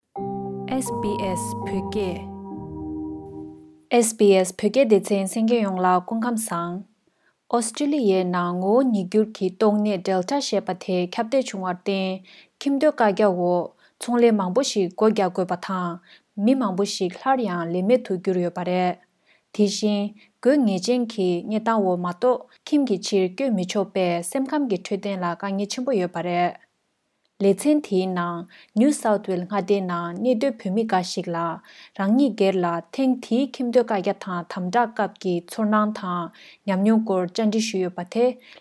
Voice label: voxpop, Tibetan; living with extended lockdown; SBS

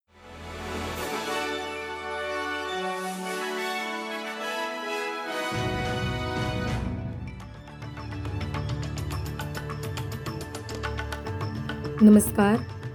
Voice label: gujarati news bulletin; SBS Gujarati Australian update: 9 September 2025

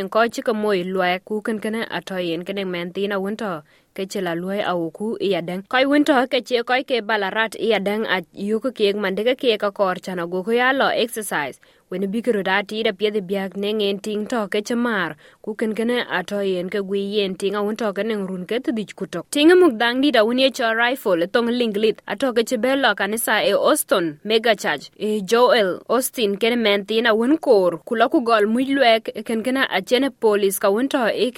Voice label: SBS Dinka News Flash, Dinka

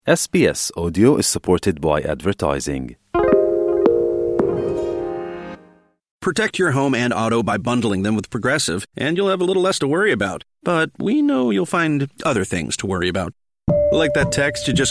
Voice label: cantonese; 美國總統特朗普政治盟友柯克遭槍殺 震驚全美政壇; 美國總統特朗普（Donald Trump）重要盟友柯克（Charlie Kirk）於美國猶他州一間大學出席公開活動時遭槍擊身亡。; SBS Chinese

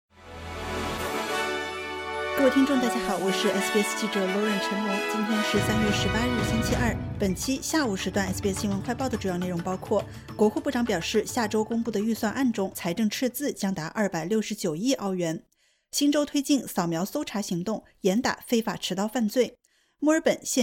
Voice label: mandarin news flash; 本财年财政赤字达269亿澳元 支出面临更大挑战; SBS Chinese; SBS新闻快报

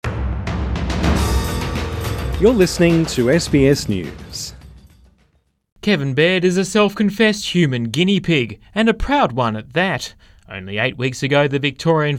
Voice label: english; Stem cell research changing stroke victims' lives; Australian-developed therapy could save lives in the crucial minutes after stroke.; SBS News

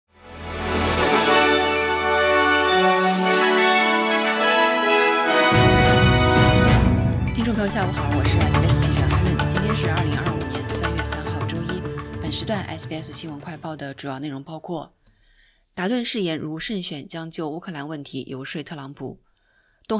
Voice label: mandarin newsflash; 达顿誓言如胜选将就乌克兰问题游说特朗普; SBS Chinese; SBS新闻快报

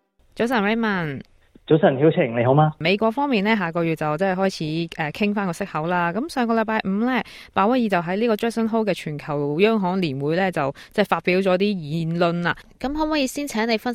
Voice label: cantonese; 【有咩留意?】澳洲開始公司業績公布季 專家劃重點!; 《寰宇金融》; Morgans; SBS Chinese

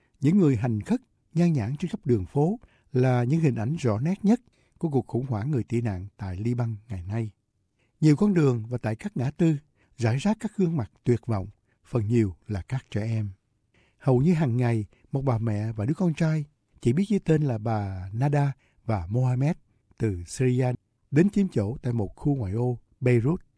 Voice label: vietnamese; Nạn nhân cuộc chiến Syria biến thành nô lệ tình dục; SBS Vietnamese

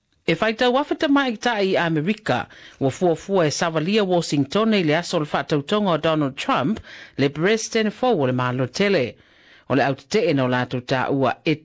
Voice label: samoan; Solo tetee tamaitai faasaga ia Trump; SBS Samoan

